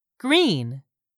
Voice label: "green", English; 3000 words